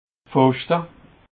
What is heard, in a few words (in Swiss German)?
"pfeschte", alsace